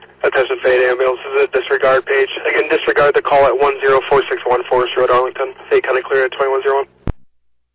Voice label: Ambulance, dispatch

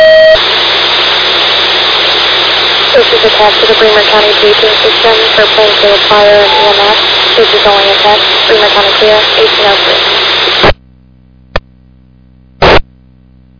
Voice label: Fire, dispatch

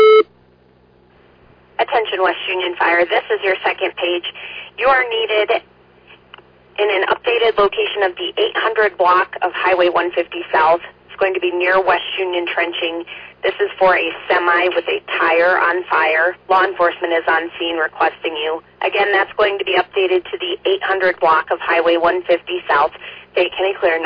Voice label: West Union Fire, dispatch

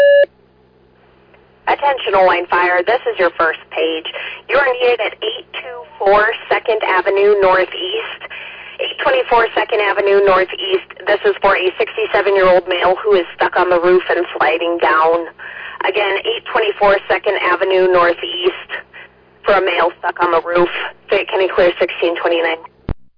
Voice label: Fire, dispatch

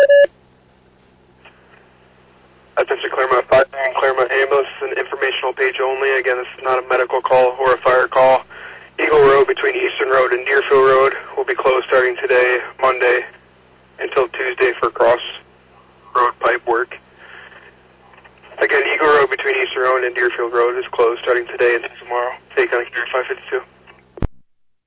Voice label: Fire, dispatch; Clermont

